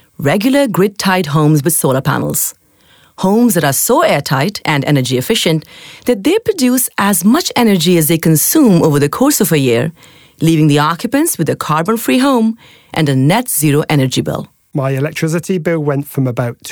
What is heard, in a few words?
Podcast